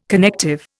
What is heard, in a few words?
"connective", Indian